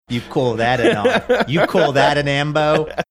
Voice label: you call that a knife you call that an ambo australian accent